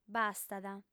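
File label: "bastat", female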